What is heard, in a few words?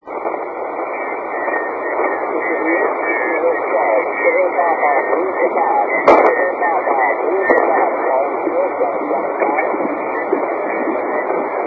80m SSB; SSB-SP; A CQ from Poland coming in short path.